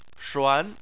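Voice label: "shuan", Chinese, second tone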